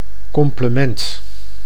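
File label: "complement", Dutch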